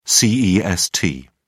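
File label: "cest", British English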